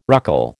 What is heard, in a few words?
"ruckle", American